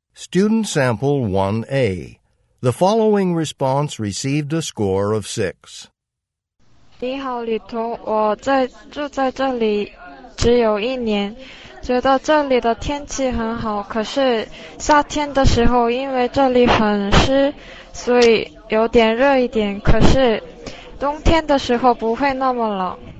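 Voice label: chinese conversation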